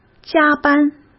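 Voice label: "加班", Mandarin Chinese; chinese flashcards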